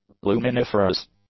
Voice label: "luminiferous", English